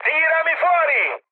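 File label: Italian bailout mood high, speech; War Thunder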